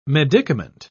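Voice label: "medicament", English, British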